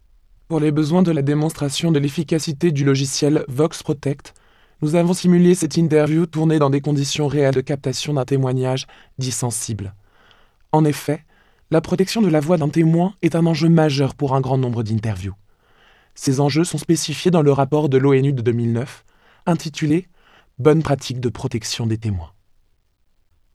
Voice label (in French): FR TEMOIN PITCH